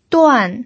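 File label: "duan", Mandarin Chinese, fourth tone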